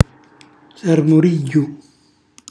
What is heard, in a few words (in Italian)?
"sarmurigghju", Calabrese; cucina calabrese